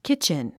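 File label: "kitchen", English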